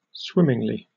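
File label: "swimmingly", British English; pronunciation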